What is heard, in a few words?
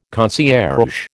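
"concierge", American English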